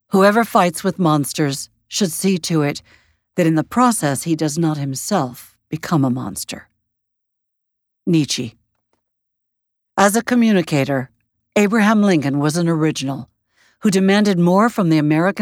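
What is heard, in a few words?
Historical Non Fiction, Audiobook Narration; Peter and the Whimper-Whineys / Historical Non-Fiction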